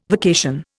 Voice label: "vacation", Indian